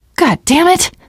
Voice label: swear, teengirl; survivor voice

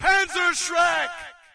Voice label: US panzerschreck; player; Source Engine; dod

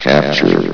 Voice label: "capture", vox; Source Engine; bshift

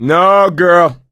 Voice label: no, female; voice; source engine; left 4 dead 2

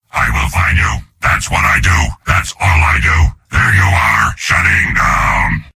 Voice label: soldier robot; video games; source engine; tf; mvm

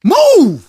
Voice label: emphatic go, survivor voice, manager; source engine; left 4 dead 2

